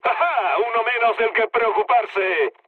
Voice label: player killed enemy, mood low, speech